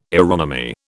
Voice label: "aeronomy", British